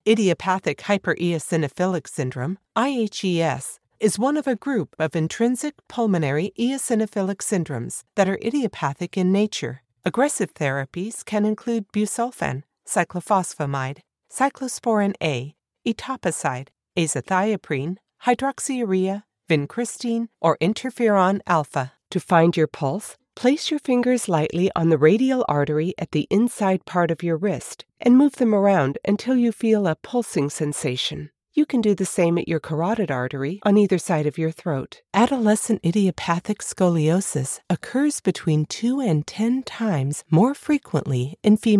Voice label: Studio sample dry read